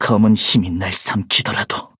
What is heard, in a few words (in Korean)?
ko levelup; kohlrabi; Cookie Run